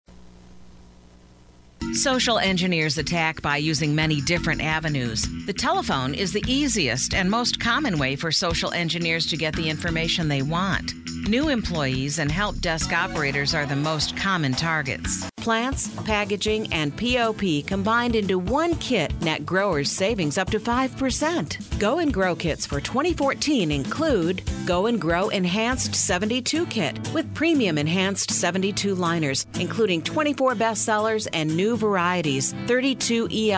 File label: Narration Female